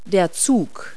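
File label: "train", English word; Multi-Lingual Talking Picture Dictionary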